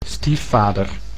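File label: "stiefvader", Dutch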